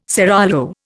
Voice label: "seraglio", Indian

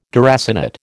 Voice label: "deracinate", American

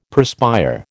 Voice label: "perspire", American

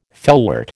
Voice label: "felwort", American

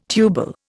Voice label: "tubal", Indian

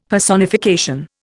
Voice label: "Personification", Indian; Dictionary

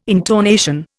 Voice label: "intonation", Indian

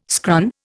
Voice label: "scran", Indian

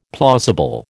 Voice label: "plausible", American

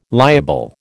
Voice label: "liable", American

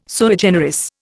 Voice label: "sui generis", Indian

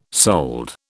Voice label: "sold", British

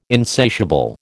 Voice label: "insatiable", American

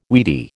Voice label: "weedy", American

